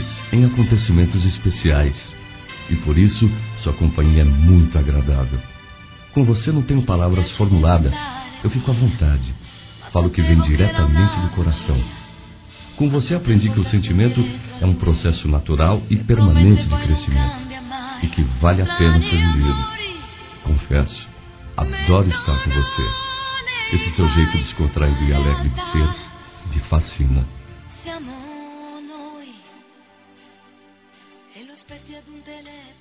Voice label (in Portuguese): Quem Fica Masculino; Telemensagens; Despedida Boas Vindas; Telemensagens 2017